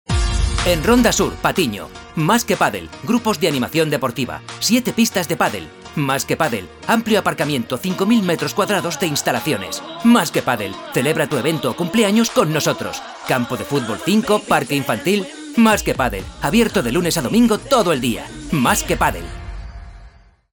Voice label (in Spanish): cuna publicidad radio